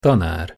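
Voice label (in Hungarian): "tanár", Hungarian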